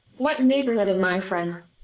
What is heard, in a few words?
Voice Acting, es, F0